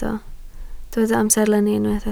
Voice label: "amserlenni", Welsh

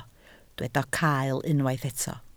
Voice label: "cael", Welsh

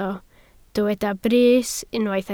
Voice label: "brys", welsh

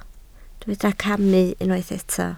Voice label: "camu", Welsh

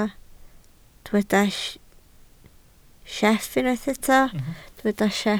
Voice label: "sieff", Welsh

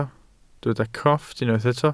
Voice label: "crofft", welsh

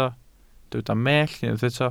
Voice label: "mellt", welsh

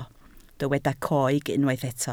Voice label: "coeg", Welsh

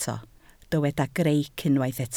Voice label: "greic", Welsh

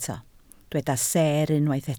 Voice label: "seer", Welsh

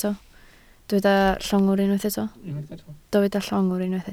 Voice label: "llongwr", Welsh

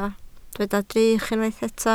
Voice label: "drych", Welsh

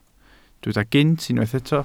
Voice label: "gynt", Welsh

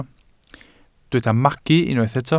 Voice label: "magu", Welsh

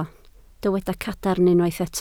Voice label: "cadarn", Welsh

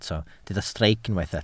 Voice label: "streic", welsh

